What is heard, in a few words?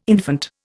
"infant", Indian